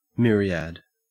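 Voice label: "myriad", English, Australian accent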